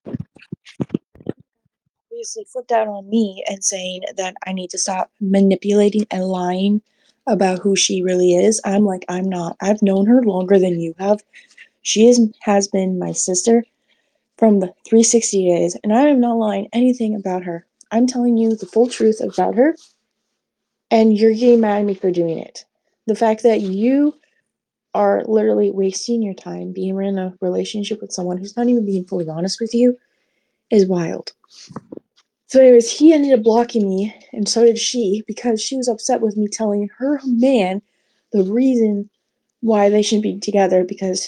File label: voice message